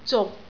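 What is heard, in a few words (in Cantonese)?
"zuk6", Cantonese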